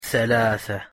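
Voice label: "ثلاثة" Arabic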